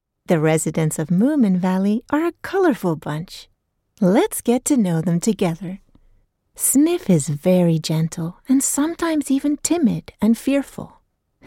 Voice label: swedish english friendship skills

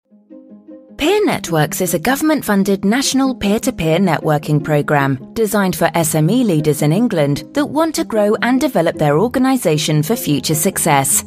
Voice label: explainer showreel

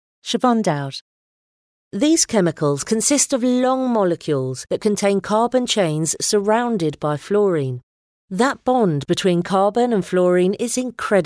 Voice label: corporate

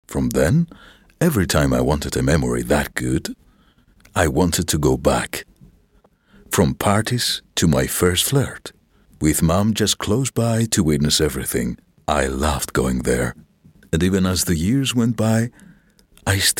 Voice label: english with a greek accent, Male; greek voice

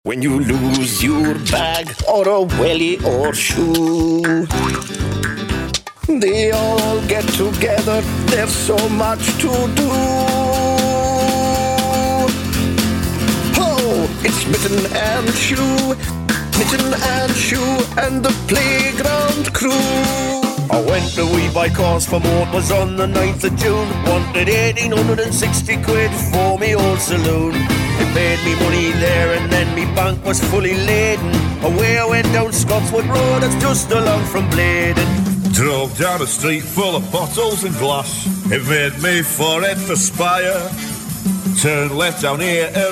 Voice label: singing character

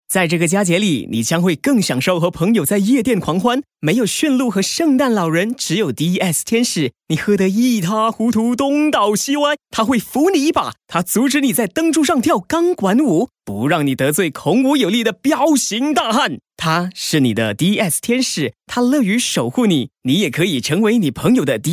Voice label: chinese mandarin male upbeat corporate

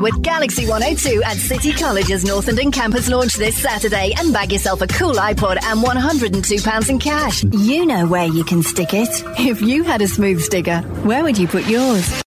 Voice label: station sound promos

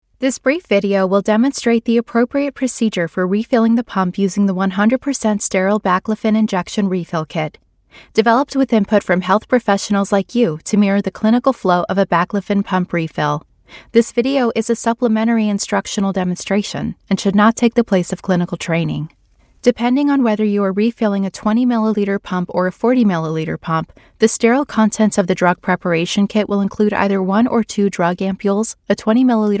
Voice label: american voice actor elearning

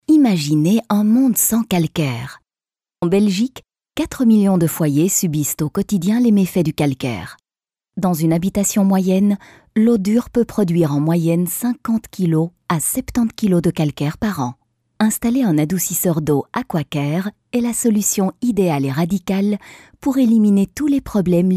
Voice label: French Belgian female corporate

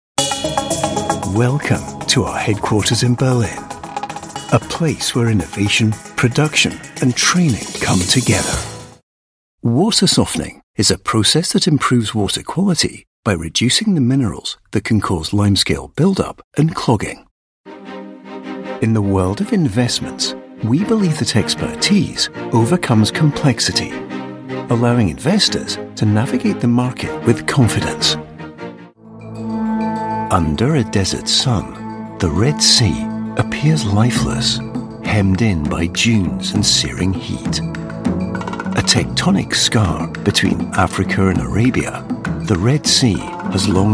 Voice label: explainers

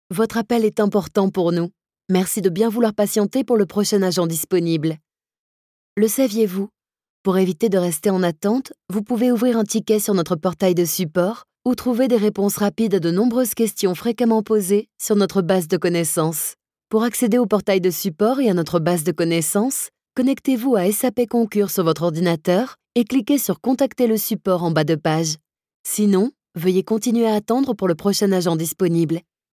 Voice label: french voice telephone

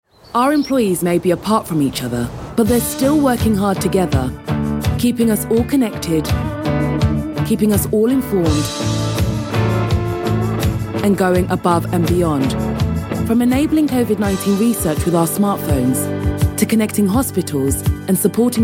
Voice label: corporate

